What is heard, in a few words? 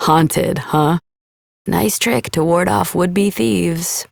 Adult Op, en; New War; Ascend Tower